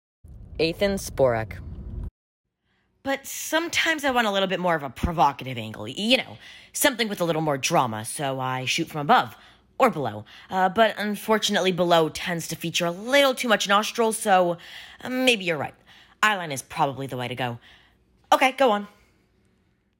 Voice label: Provocative